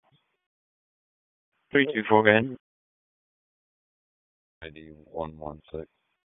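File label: Raleigh Police Northeast District Dispatch, p25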